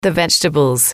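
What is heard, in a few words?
"the vegetables", English, female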